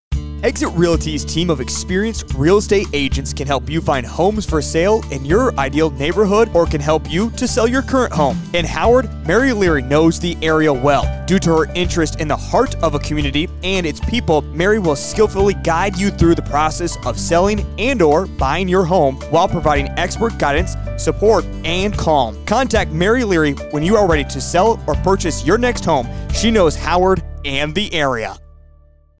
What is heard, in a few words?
Commercial